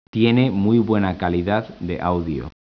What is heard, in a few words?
SPANISH; speech